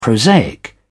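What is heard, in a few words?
"prosaic", British English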